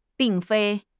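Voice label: "并非", Chinese; HSK6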